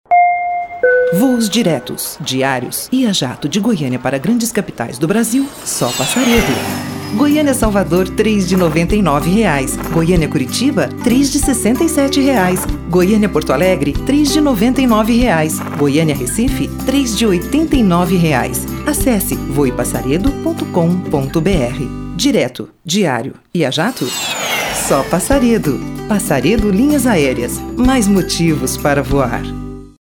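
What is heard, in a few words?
Brazilian Portuguese, female, commercial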